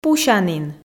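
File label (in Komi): "пусянін", Komi, female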